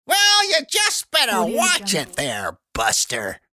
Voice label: cartoon well you just better watch it there buster